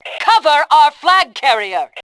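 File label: defend carrier, voice Fem; Tribes2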